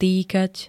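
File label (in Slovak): "týkať", Slovak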